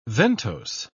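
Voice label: "ventose", British English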